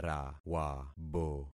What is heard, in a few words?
"rawabo", concat syllables; Affixing experiment